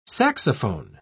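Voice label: "saxophone", English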